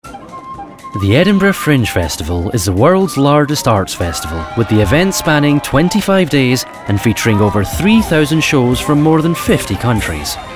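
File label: Corporate Narration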